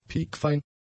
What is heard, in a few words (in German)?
"piekfein", German; adjectives